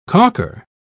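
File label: "caulker", British English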